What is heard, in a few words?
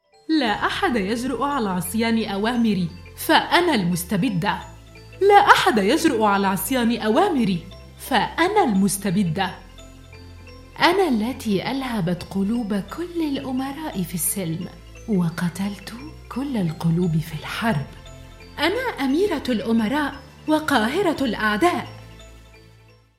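Female Warriors; Gaming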